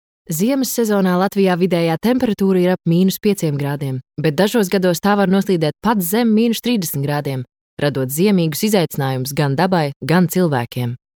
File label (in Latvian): Infografika LV